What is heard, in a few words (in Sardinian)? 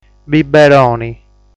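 "Biberoni", Sardinian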